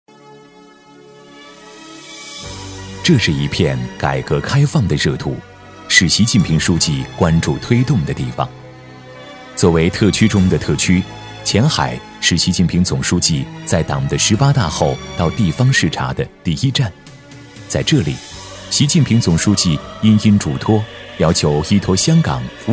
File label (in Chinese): 男 纪录片语气; 妈湾